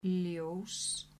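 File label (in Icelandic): "ljós", Icelandic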